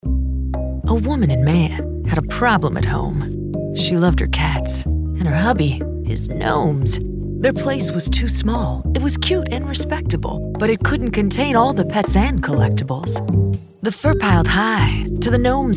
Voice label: Real Estate RADIO SPOT; STCU